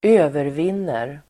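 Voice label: "övervinner", Swedish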